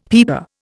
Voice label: "peeper", Indian; Dictionary